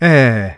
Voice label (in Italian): Sillabica; IPA